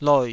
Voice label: "loi3", Taiwanese

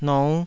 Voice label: "nou5", Taiwanese

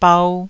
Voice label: "bau1", taiwanese

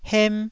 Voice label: "hem1", taiwanese

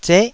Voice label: "zeh9", taiwanese syllables

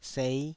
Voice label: "sei", Taiwanese

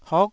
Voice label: "hok9", Taiwanese syllable

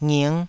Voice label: "nging5", Taiwanese

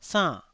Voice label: "sann4", taiwanese

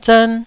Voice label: "zern1", taiwanese syllables

